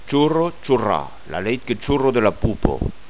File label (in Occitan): "chorra", Gascon